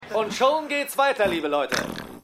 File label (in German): TV Sprecher, Stimmproben; Seinfeld